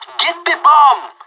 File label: spotted loose bomb, pirate; Counter Strike Model Pack; CS:GO